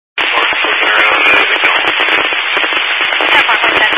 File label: Highway Patrol, Phoenix Metro East Area, scanner